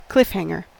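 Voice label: "cliffhanger", US English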